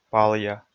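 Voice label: "палъя", Komi, male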